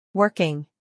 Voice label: "working", English